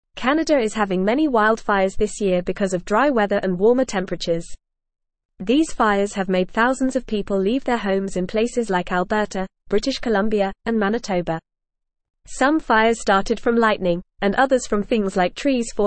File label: English FAST Reading; Canadas Big Fires People Leaving Their Homes